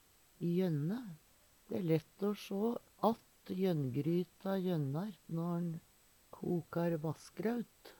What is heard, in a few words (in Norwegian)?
jønne, Numedalsmål